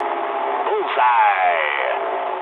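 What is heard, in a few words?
English bomb success mood low; speech; War Thunder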